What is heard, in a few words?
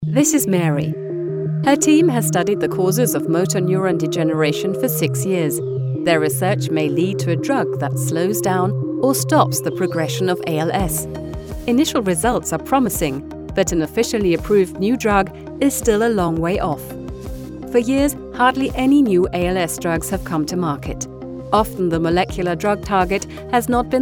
EN Image; Charite